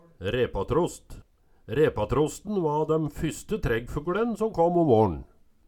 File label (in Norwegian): repatrost, Numedalsmål